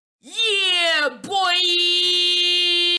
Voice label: yeah boi